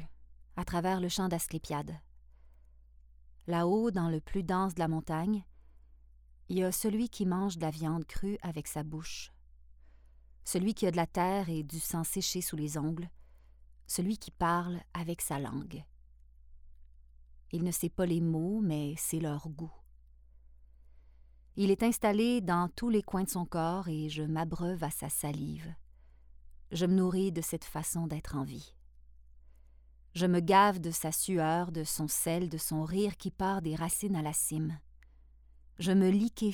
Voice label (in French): Femme Foret Narration francais quebecois Posee